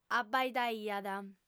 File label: "abaidaiat", audiofem